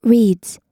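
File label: "reads", US English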